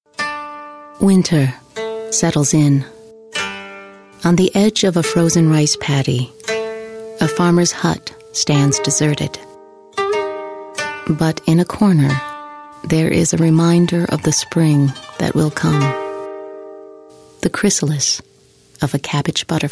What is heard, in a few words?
Narration Voice Over